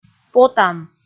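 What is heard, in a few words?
"потан", Komi, female; kpv; audio dict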